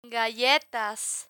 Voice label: "galletas", Spanish

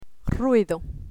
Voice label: "ruido", Spanish; diphthongs and triphthongs

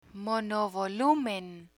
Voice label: "monovolumen", Spanish

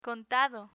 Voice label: "contado", Spanish; learn spanish

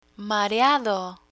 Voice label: "mareado", Spanish; pharmacist terms; learn spanish

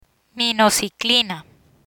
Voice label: "Minociclina", Spanish; medical spanish dermatology medicines; learn spanish

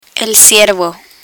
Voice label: "ciervo", Spanish; spanish for children; learn spanish

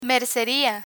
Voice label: "merceria", Spanish; learn spanish

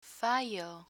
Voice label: "fallo", Spanish

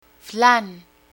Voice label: "flan", Spanish

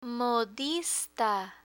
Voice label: "modista" Spanish; fashion; learn spanish

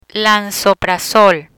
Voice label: Lansoprazol, spanish

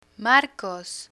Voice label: "marcos", Spanish

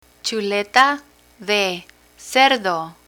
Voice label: "chuleta de cerdo", Spanish

